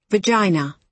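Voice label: "vagina", British English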